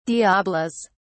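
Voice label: "diabolos", British English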